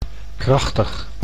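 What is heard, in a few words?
"krachtig", Dutch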